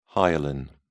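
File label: "hyaline", British English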